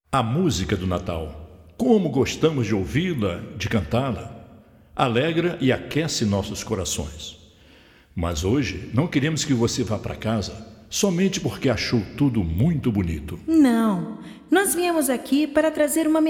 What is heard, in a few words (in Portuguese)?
Narração